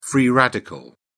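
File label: "free radical", British English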